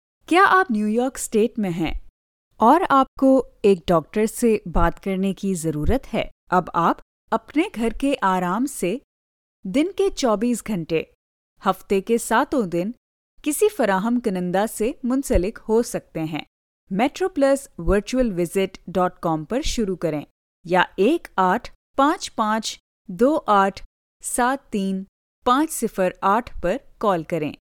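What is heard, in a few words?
Urdu, Pakistan, female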